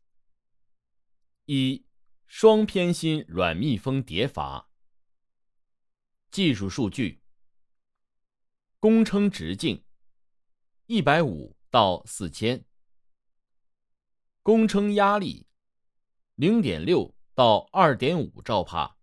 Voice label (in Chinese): 阀门 介绍 试音